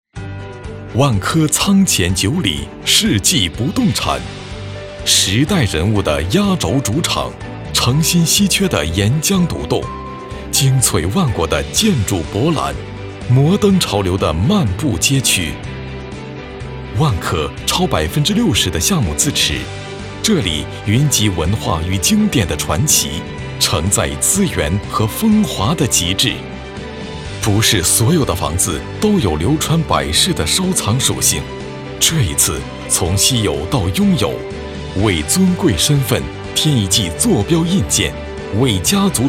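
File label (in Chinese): 信息流房地产浑厚大气品质, 男配音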